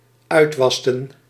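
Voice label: "uitwasten", Dutch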